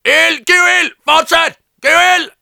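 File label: Danish positive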